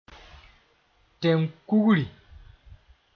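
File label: "tẽn-kugri", Mooré; constitution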